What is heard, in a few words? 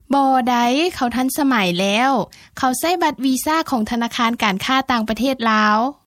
Lao